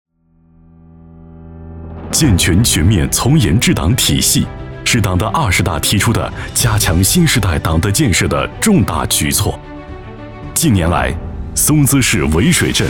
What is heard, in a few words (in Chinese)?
男 专题; 风清舵正行自远